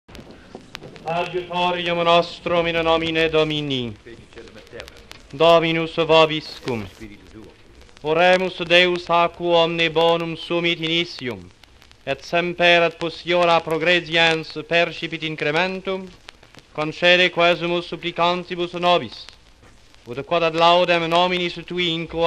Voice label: Benediction Latin Cardinal; L'hôpital du chemin de la Côte-Sainte-Catherine; Hopital Sainte Justine; apres 1957